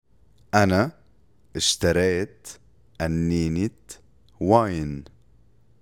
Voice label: Past tense Arabic